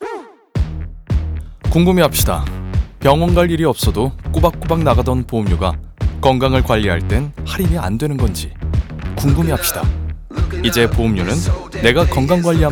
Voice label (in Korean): 샘플 똑똑한 20대; AIA